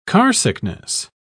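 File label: "carsickness", English, British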